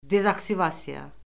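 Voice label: "dezaktivasiya", Azerbaijani; Orfoqrafiya Lüğəti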